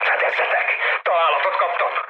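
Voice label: Hungarian aircraft damaged mood high, speech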